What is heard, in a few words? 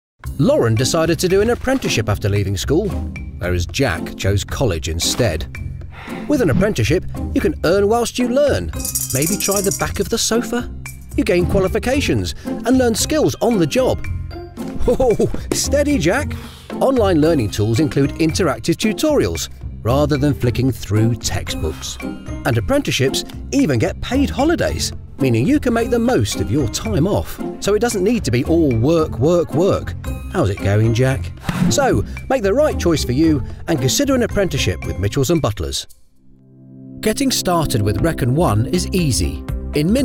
Commercial